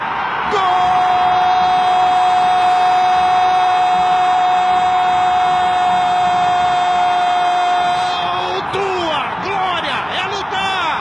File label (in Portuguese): gol do flamengo narracao; gustavo villani